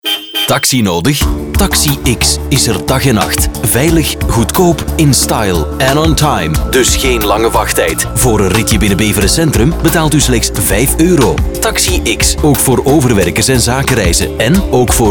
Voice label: commercial; taxi x